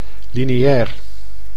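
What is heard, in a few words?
"lineair", Dutch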